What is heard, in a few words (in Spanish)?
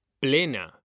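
"PLENA", Spanish; mekanta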